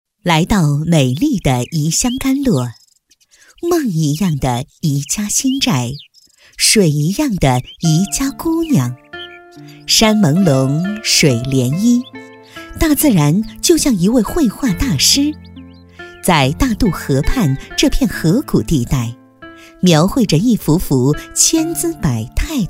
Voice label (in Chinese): 女 专题; 甘洛成品